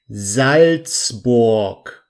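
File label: "salzburg", German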